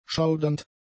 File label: "schaudernd", german; adjectives